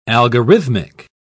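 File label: "algorithmic", British English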